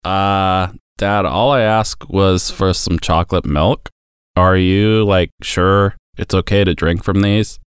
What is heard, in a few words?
Sarcastic Teen Whatever Unimpressed